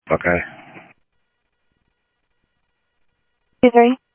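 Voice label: Sheriff West County, scanner audio trunk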